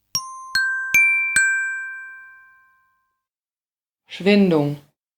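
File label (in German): "Schwindung", German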